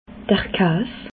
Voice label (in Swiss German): "kaas", alsace